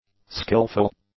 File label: "skillful", English; adjectives